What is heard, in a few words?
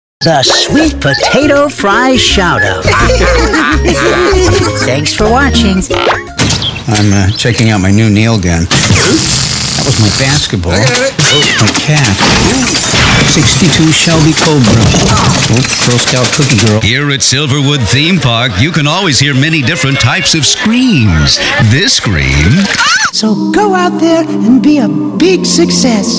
Voice Over Animation